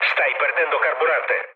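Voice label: Italian tank damaged mood low, speech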